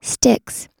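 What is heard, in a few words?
"sticks", American English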